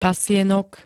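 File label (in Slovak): "pasienok", Slovak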